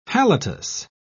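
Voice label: "halitus", British English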